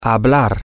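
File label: to Speak Talk, Spanish, male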